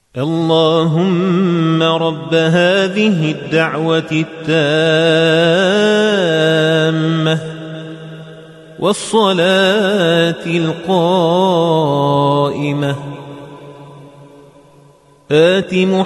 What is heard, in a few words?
Dua After Azan in Arabic